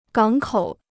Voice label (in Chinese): "港口", Chinese